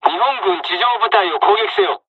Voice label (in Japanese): takeoff, dialogs japanese; War Thunder